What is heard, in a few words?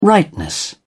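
"rightness", British English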